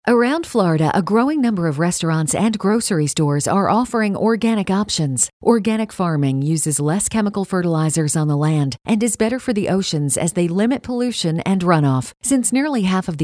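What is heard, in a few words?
narrative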